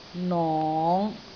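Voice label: "nong5", Cantonese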